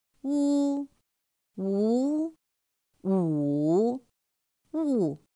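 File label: ū ú ǔ ù, Mandarin Chinese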